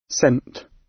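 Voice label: "scent" British; Flora and fauna, agriculture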